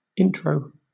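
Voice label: "intro", British English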